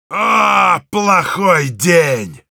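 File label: jeers, Russian; Heavy; «Ооо, плохой день!»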